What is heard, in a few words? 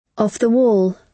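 "off the wall", British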